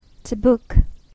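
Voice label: "to book", English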